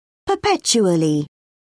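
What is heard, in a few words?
"perpetually", British English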